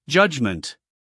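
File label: "judgment", American English, male; everyone-can-use-english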